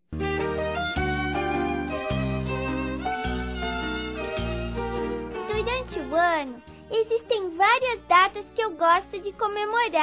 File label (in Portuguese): FELIZ PASCOA, Telemensagens, Infantil, fem